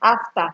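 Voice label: "after", Nigerian Pidgin, female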